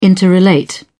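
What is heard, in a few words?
"interrelate", British English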